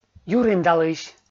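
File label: "юриндалысь", Komi, male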